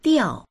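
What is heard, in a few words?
"diao4", Mandarin Chinese; 掉; 落ちる、なくす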